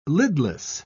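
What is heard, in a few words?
"lidless", British English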